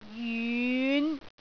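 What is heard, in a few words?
"jyun5", Cantonese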